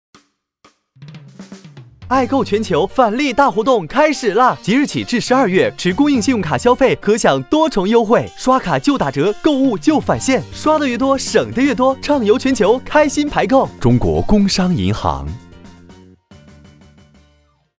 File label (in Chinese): 男国 广告 银行 活力; 工商银行